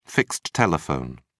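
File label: "fixed telephone", British English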